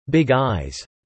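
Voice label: "big eyes" spoken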